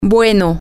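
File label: good, spanish, female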